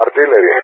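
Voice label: "artillery", English, GREECE; Target; Allied Flight; DCS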